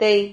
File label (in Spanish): "tey", Silabas